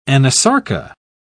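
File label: "anasarca", British English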